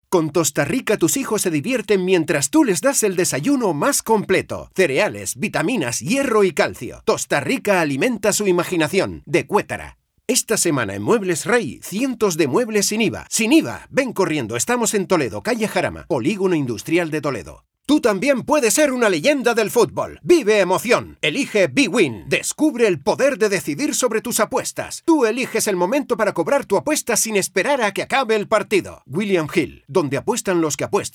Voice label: cuñas

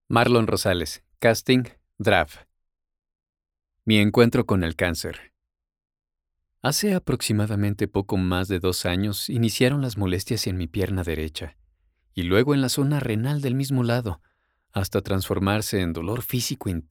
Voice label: Audiolibro

